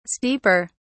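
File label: "steeper", British English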